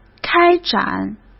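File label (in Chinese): "开展", Mandarin Chinese; chinese-flashcards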